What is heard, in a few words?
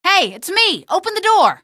password, female; Source Engine; video game; streetwar; tunnel